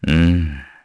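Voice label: Deny, Korean; voices; heroes; Zafir; kings raid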